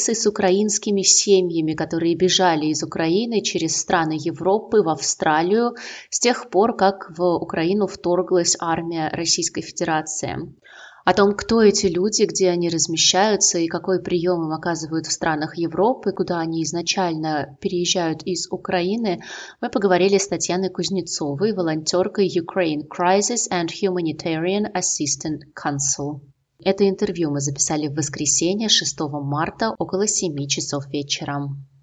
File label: russian; SBS Russian; В Брисбен прибыли первые семьи, бежавшие из Украины из-за войны